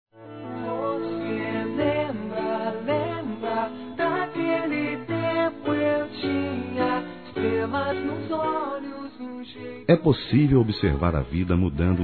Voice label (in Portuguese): IRMÃO DISTANTE M